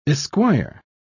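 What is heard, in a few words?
"esquire", British English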